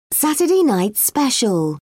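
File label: "saturday night special", British English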